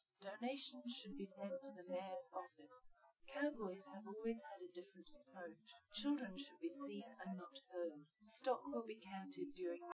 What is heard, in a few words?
female, live rec; SiSEC08; SiSEC underdetermined